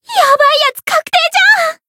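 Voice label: Voice encounter fear, Japanese; nona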